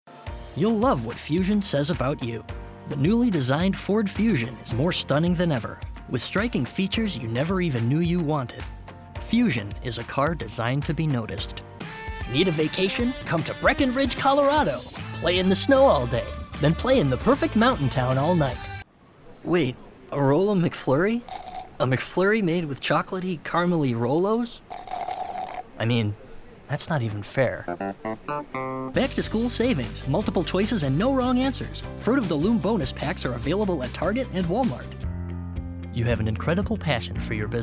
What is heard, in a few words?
commercial demo